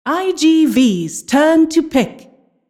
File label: announcer team pick